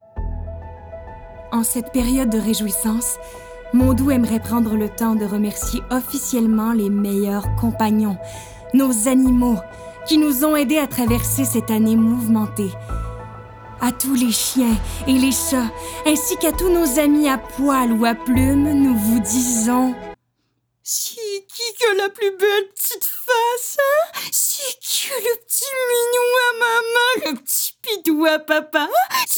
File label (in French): Demo voix